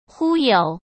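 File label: "忽悠", Mandarin Chinese; chinese-flashcards; hsk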